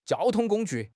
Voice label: "jiao1 tong1 gong1 ju4", 汉语口语; 汉语口语新时代-初级下